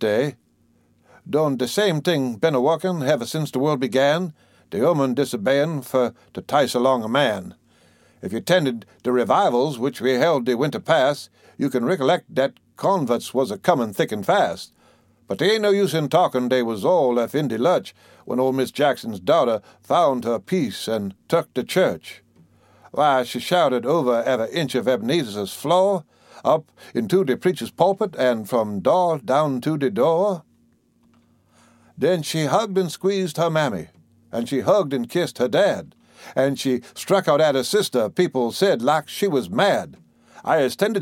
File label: how lucy backslid, humour and dialect